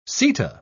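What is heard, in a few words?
"seta", British English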